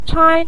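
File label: "chai" Mandarin Chinese, neutral tone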